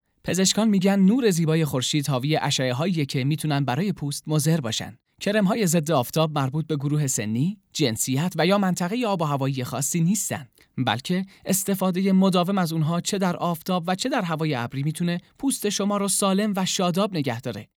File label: narration